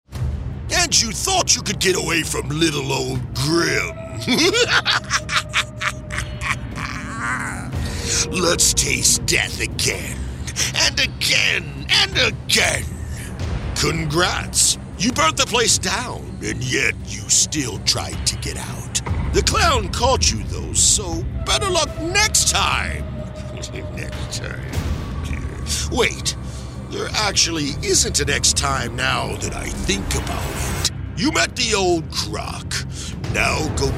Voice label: Video Game Characters Evil, Conversational male voice; male voice actor located in Harrison, United States